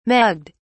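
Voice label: "mugged", British English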